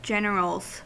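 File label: "generals", American English; pronunciations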